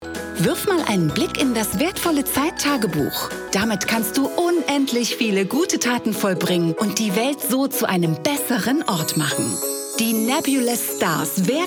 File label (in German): Kinder Werbung, Sprecherin; NebolousStars® I Kids I TV Werbung